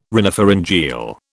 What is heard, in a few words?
"rhinopharyngeal", British